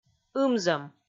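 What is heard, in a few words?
"ымзӧм", Komi, female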